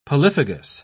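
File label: "polyphagous", British English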